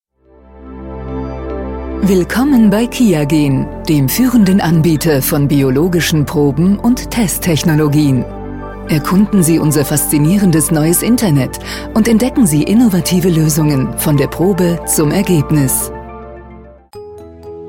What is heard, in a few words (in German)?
telefon, deutsch frauen; sprecher